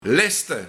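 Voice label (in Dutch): "Leste", Luik, Laatste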